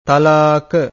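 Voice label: "tal_aaka", Pali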